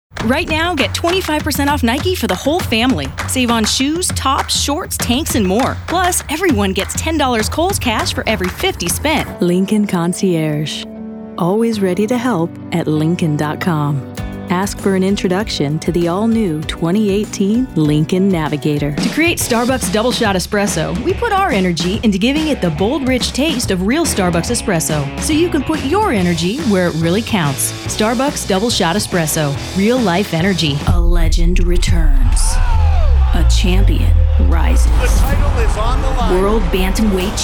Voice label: Commercial